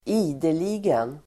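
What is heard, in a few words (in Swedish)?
"ideligen", Swedish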